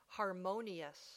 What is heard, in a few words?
"harmonious", English